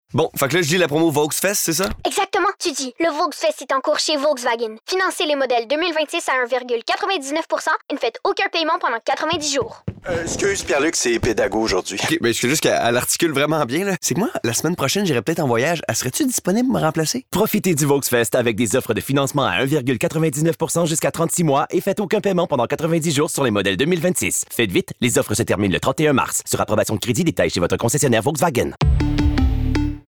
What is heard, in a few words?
Radio fr; VW; Volksfest; FR 2026 - Volksfest